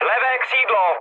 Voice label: left wing, speech cze, mood med; War Thunder

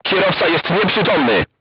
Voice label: voice message driver contused, crew tanks polish

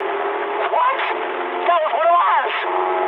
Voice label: eng player killed ally mood high, speech eng

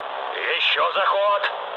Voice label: bomb failure, mood low, speech ru; War Thunder

